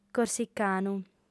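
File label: "corsicanu", Sardinian, female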